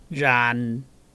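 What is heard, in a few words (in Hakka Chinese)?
"rhan5", 客語 海陸腔; 煙; 圓; 遠; 院; 縣; •部分地區讀為rhan。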